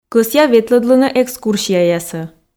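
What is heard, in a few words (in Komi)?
кӧсъя ветлӧдлыны экскурсияясӧ, Komi, female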